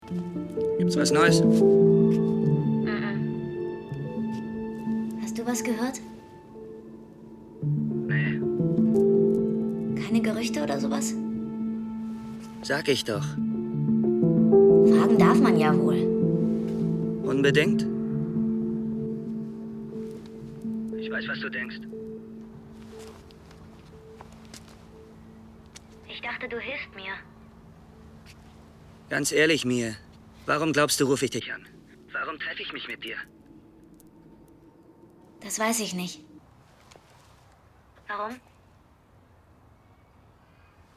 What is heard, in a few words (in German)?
Telefonat